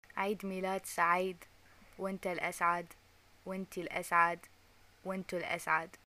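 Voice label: greeting happy birthday, Iraqi Arabic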